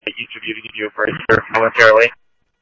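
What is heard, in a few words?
Simulcast Chandler Patrol A, scanner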